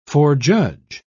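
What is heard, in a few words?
"forejudge", British English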